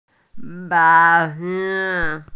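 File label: "bai2yun2", Mandarin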